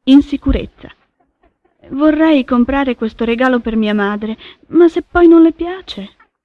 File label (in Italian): insicurezza